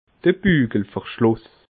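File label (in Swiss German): "bugelverschluss", Bas-Rhin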